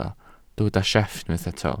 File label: "sieff", Welsh